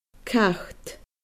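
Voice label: "cat", Scottish Gaelic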